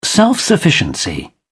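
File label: "self-sufficiency", British English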